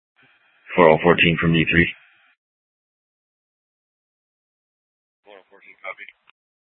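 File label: police dispatch, Scottsdale PD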